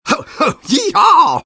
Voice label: taunt cong fun; engy; tf; taunts